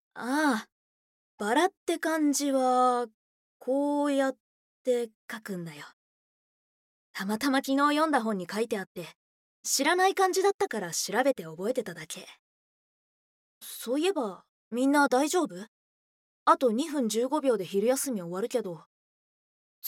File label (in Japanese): Shogakusei Danshi Inteli